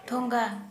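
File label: Santali female pipe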